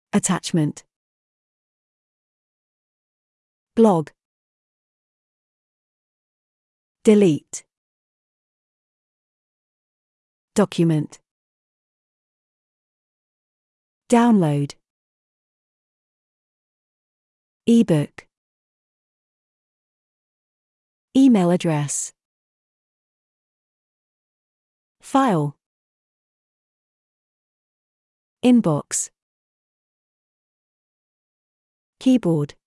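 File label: computers, British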